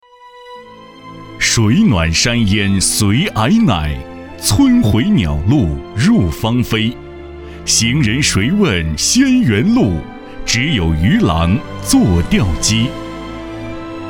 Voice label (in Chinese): 解说; 临沂解说